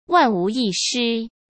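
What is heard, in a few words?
"万无一失", Mandarin Chinese; hsk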